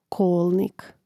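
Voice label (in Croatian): "kolnik", Hrvatski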